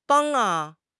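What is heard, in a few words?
"bang1", Chinese; HSK; HSK2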